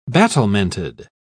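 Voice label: "battlemented", British English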